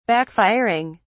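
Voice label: "backfiring", British English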